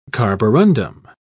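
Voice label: "carborundum", British English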